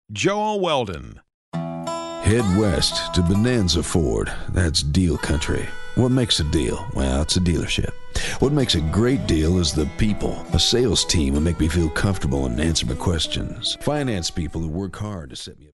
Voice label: COWBOY

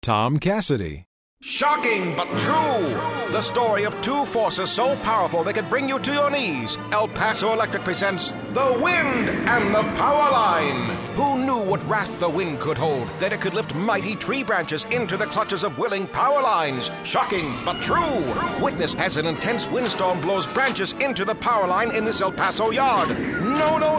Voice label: 50s Announcer

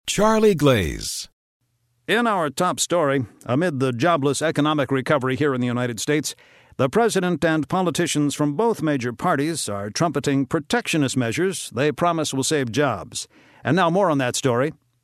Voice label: NEWS ANCHOR

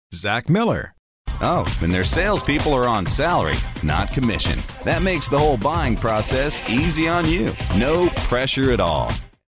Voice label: folksy

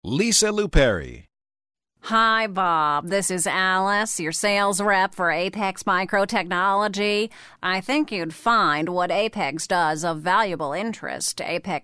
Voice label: nasal

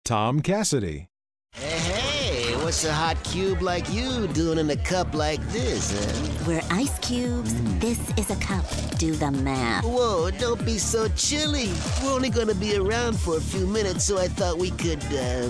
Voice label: ANNOYING

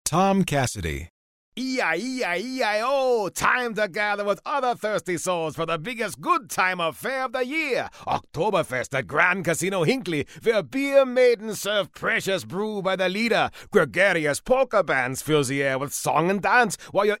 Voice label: german accent

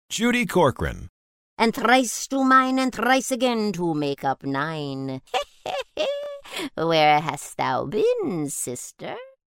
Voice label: witch

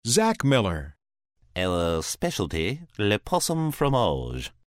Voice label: FRENCH ACCENT

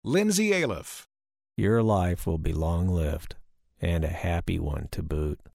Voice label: FLAT

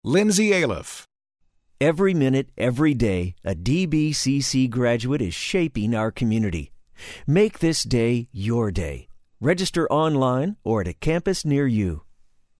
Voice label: INSPIRING

